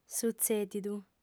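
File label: "sutzèdidu", lingua sarda, female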